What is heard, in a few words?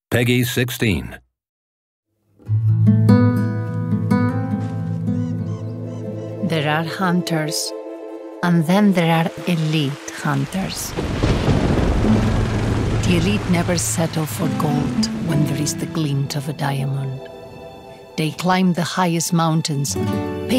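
game trailer English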